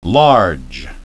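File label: "large", English; lɑːdʒ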